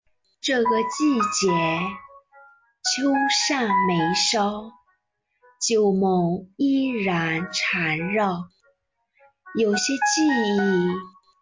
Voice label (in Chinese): 短视频配音